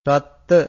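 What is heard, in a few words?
"raṭṭha", Pali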